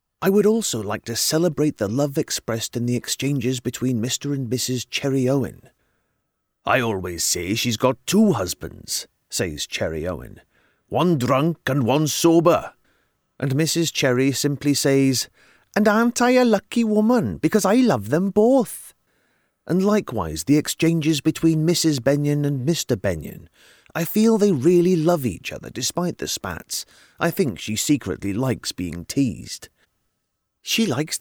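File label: welsh, accents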